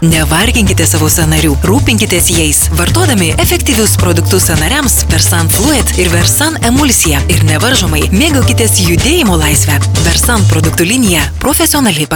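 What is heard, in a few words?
Lithuanian, female, commercial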